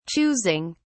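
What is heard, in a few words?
"choosing", British English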